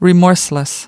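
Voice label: "remorseless", American English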